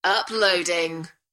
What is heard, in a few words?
UK female, "Uploading"; beanstalk audio